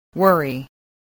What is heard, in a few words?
"worry", voice, english